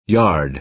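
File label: "yard", American English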